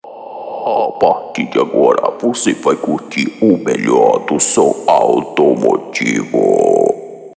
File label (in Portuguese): abertura off som automotivo; estudio cgmrproducoes